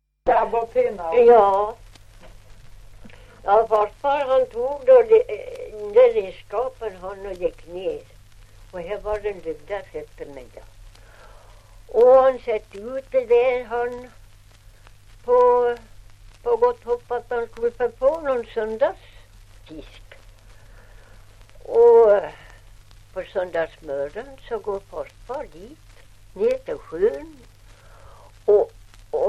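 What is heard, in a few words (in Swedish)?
Ovansjö Gästrikland, svenska sagor dialekter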